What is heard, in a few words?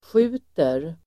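"skjuter", Swedish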